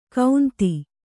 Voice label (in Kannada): "ಕೌಂತಿ", kannada